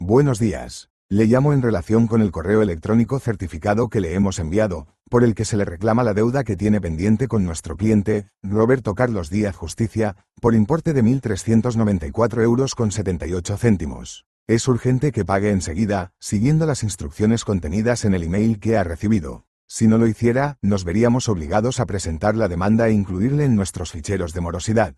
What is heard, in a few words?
audio call